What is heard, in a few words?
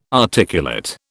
"articulate", British